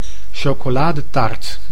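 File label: "chocoladetaart", Dutch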